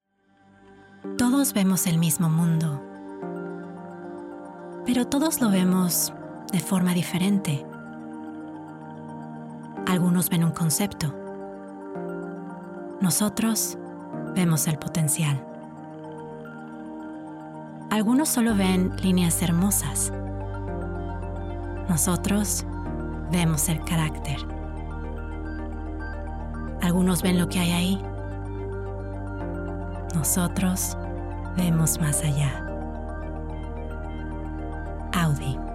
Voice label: Full Spanish Reel; European; Spanish (Castillian); Male; 20s-30s